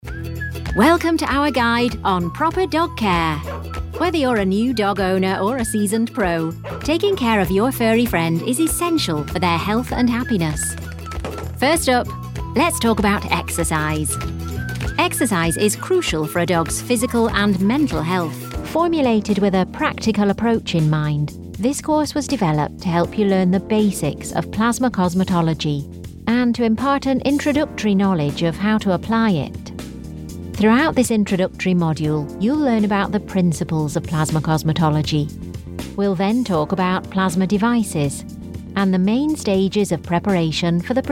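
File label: elearning, female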